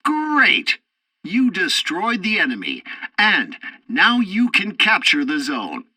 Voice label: tutorial tank arcade capture zone target killed, english; War Thunder